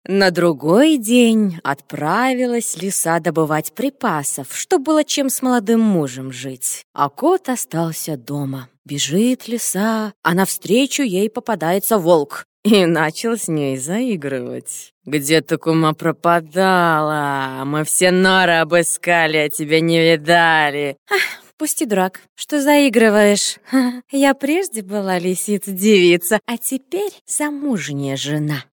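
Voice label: Russian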